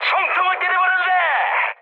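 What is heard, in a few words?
Korean bomb success mood high; War Thunder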